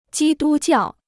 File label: "基督教", Chinese